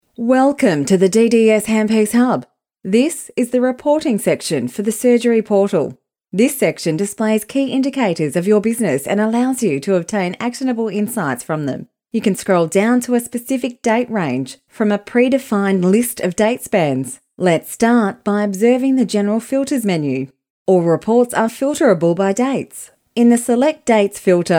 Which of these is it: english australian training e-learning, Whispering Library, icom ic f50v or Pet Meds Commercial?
english australian training e-learning